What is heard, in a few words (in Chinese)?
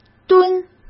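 "蹲", Mandarin Chinese; hsk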